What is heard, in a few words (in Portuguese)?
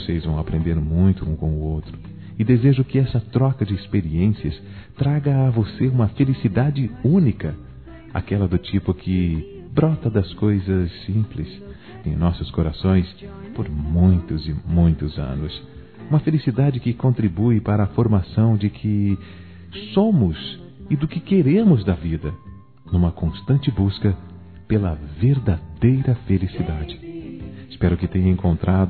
PARAB. PELO NAMORO, masc; Telemensagens; Parabenização